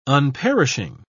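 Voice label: "unperishing", British English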